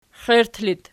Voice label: xiert loc, archi